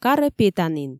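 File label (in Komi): карӧ петанін, Komi, female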